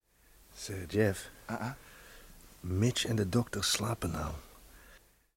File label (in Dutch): Foutje Verspreking